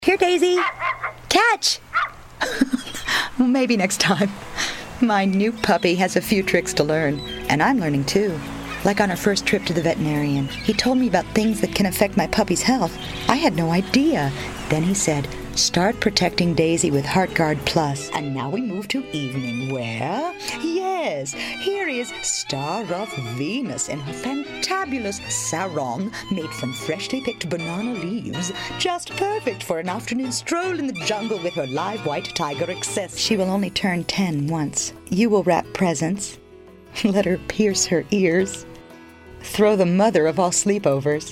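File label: commercial, Voiceover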